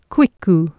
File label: "cwicu" Anglo Saxon